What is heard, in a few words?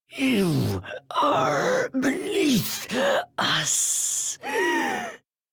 Death Squad Taunt; Shik Tal; Warframe; en